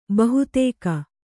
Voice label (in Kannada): "ಬಹೂದಕ", Kannada